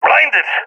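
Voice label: blinded, voice, agents, balkan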